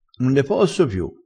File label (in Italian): "un ne", dialettale